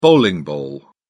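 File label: "bowling ball", English, British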